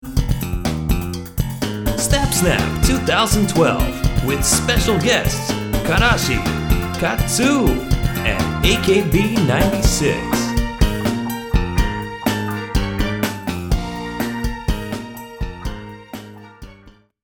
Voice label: VO Announcer